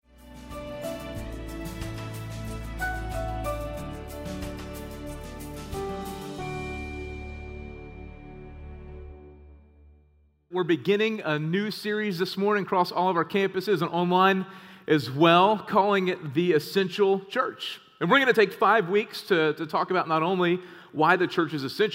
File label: Sermon English